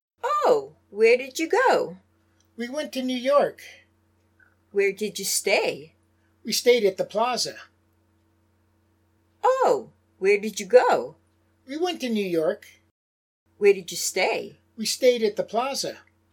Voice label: Natural Dialog; Lesson 2